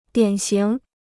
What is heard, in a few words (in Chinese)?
"典型", Chinese